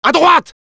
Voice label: head right, fr; "À droite!"; Demoman